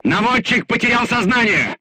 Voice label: voice message gunlayer contused, crew tanks russian